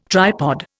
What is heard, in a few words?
"tripod", Indian